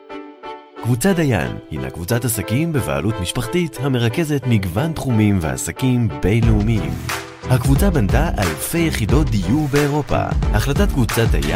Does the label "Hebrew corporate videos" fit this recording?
Yes